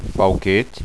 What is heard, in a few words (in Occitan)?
"fauquet", Occitan